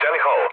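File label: Serbian enemy detected mood low; speech; War Thunder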